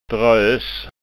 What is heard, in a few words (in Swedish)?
"dros", skanska